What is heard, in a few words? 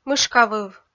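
"мышкавыв", Komi-Zyrian, female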